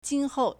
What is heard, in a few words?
"jin1hou4", Chinese